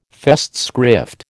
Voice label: "Festschrift", American